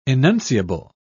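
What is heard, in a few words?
"enunciable", English, British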